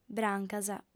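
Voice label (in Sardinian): "brancas", female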